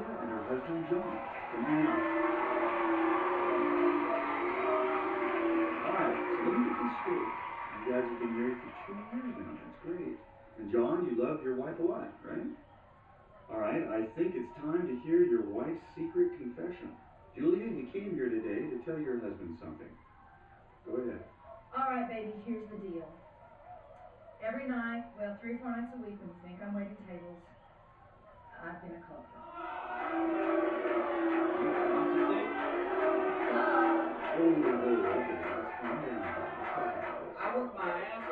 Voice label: Television; Rowdy Talk Show With Announcer And Guests, Music And Crowd Noise. From Next Room.